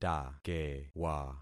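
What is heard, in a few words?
"takewa", concatenated syllables; Affixing experiment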